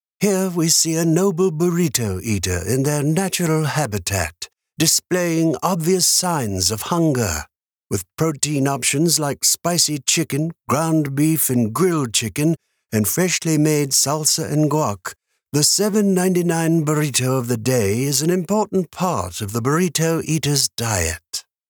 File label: english american impersonations